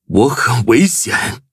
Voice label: battle, zh-hant

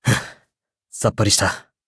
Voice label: levelup ja; Cookie Run

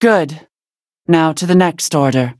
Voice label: level up, en

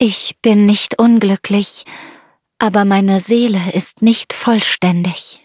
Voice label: loading, de; cookierun

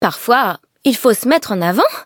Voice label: levelup fr

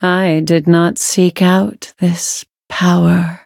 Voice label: levelup, en; cookie run

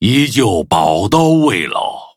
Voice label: victory, zh-hant; cookie run

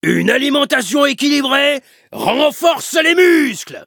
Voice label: levelup, fr; Cookie Run

